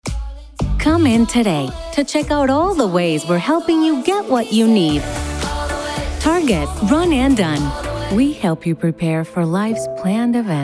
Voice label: Commercial